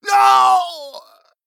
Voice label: Male Troll Death